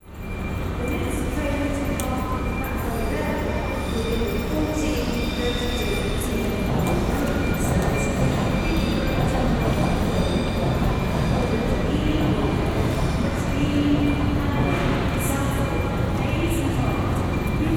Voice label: Paddington Station Announcement and Ambience